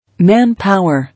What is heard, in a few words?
"Manpower", English